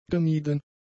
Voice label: "gemieden", German; adjectives